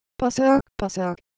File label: Korean, Ideo Phones; Texture